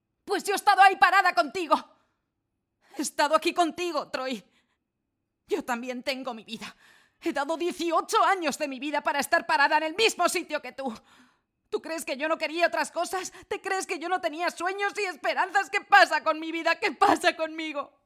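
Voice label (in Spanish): doblaje España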